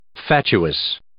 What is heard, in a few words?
"fatuous", English